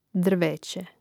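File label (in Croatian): "drveće", hrvatski; mreznik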